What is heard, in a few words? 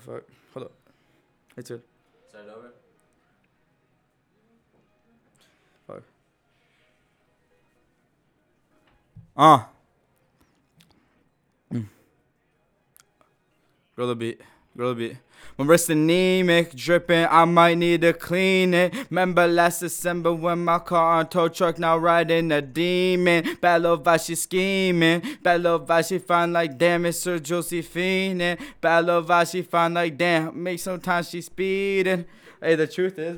recordin Mic Input; flstudio; Vocals